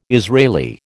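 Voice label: "Israeli", American English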